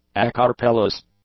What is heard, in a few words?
"acarpellous", english; adjectives